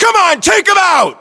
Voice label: order attack infantry, US